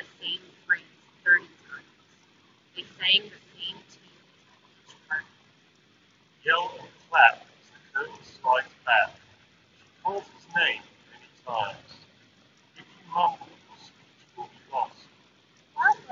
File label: Wind microphone sample; LG TONE Free Fit UTF7Q